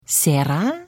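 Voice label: Hello, Khajiit female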